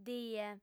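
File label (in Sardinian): "die", female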